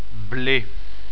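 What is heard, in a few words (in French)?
"ble", French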